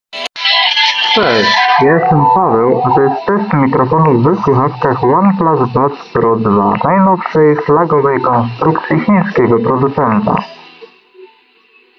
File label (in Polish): test mikrofonow oneplus buds pro 2 glosne otoczenie